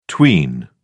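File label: "tween", English, British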